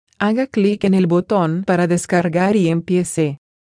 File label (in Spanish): es audio